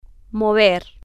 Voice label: "mover", spanish